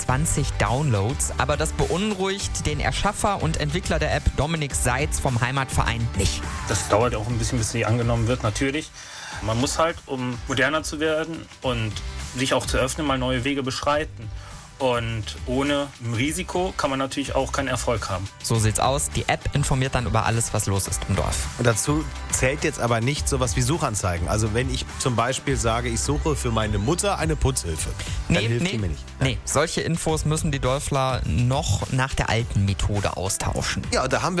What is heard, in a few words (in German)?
mitschnitt radio; berg am morgen dorfapp